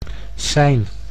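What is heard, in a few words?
"sein", Dutch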